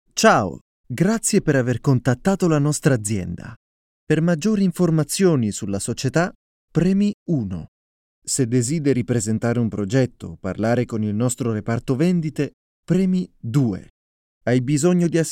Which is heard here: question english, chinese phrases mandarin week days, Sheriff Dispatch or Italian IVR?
Italian IVR